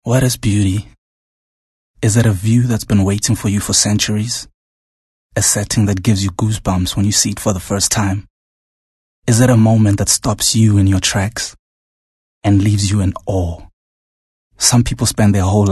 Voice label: English Intimate